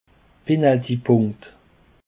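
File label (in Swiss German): "penaltypunkt", Alsatian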